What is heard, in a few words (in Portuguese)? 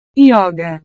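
"yoga", Portuguese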